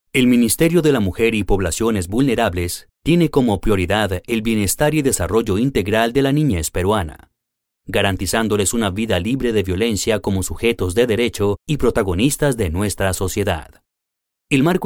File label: eLearning/Training Male Spanish (Latin American)